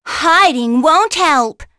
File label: Vox Skill, en; kingsraid